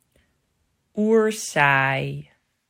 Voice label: "Oersaai", Dutch